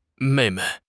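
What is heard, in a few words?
"mei4 mei5", Chinese; HSK2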